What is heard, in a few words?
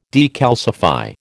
"decalcify", American